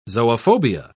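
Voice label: "zoophobia", British English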